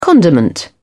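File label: "condiment", British English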